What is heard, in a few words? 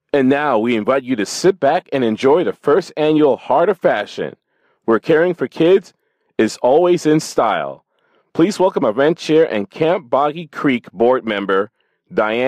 voiceover music